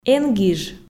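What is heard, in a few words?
"эн гиж", Komi, female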